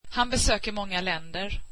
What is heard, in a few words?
swedish he visits many countries